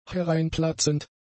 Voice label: "hereinplatzend", German; adjectives